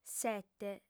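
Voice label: "sete", Sardinian, female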